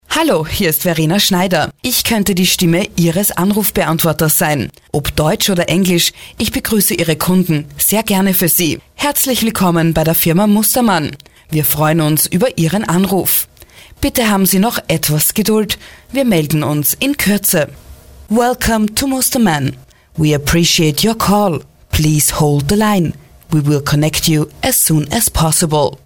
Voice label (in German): Sprecher Telefonansagen